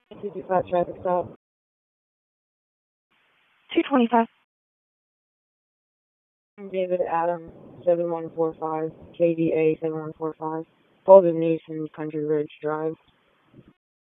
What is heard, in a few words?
Police Northeast District Disp, p25; Raleigh; wake